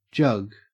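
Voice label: "jug", Australian English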